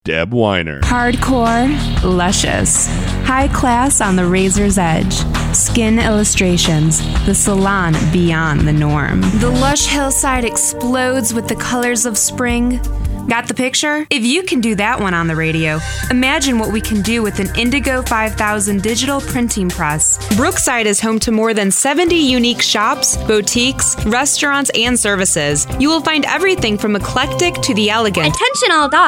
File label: voiceover